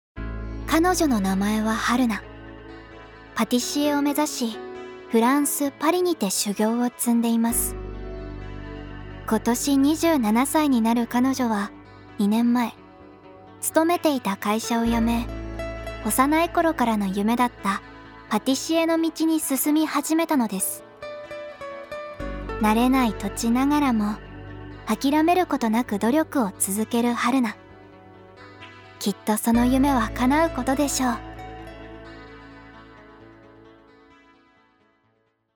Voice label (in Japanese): ナレーション